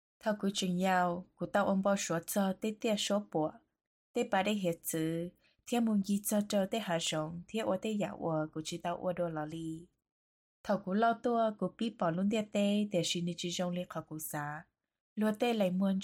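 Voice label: Hmong, Beauty; GoLocalise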